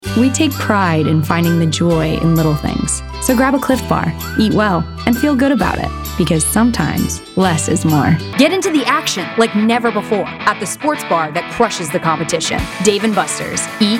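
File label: commercial voice over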